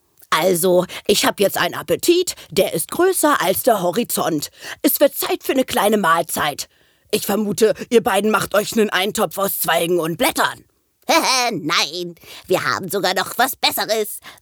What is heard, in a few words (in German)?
Comicstimmen